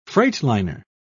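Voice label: "freightliner", British English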